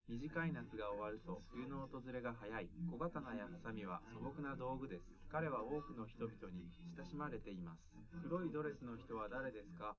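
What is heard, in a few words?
male, live recording; SiSEC11; underdetermined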